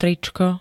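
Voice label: "tričko", Slovak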